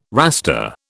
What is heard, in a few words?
"Rasta", British